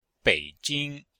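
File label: "bei3jing1", Chinese; Cours de Chinois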